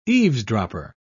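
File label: "eavesdropper", British English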